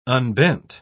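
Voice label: "unbent", British English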